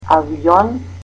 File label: "airplane" Macedonian